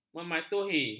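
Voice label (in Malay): "mematuhi", Malay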